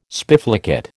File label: "spiflicate", American